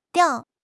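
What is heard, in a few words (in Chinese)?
"吊", Chinese; HSK6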